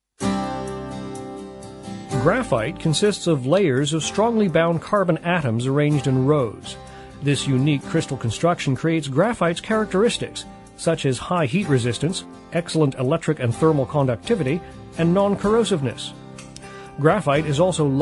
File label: usa man